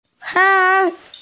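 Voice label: "heng2", Mandarin Chinese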